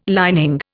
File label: "lining", Indian